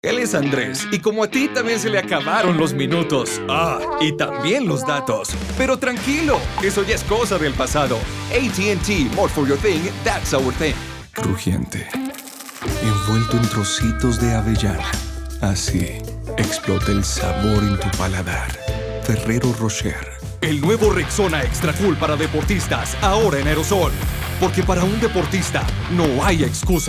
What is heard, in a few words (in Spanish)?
COMERCIAL